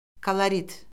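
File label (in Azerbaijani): "kolorit", Azerbaijani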